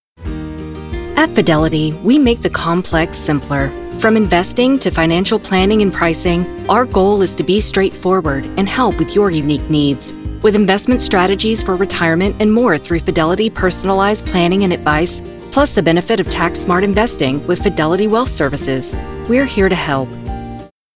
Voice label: Corporate; FIDELITY